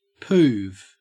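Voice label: "poove", Australian English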